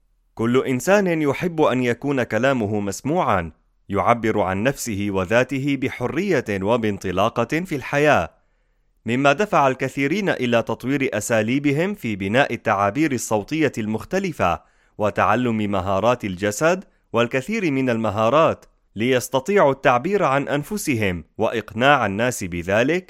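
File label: Arabic, General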